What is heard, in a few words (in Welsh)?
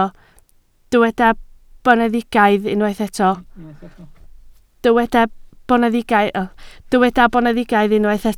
"boneddigaidd", Welsh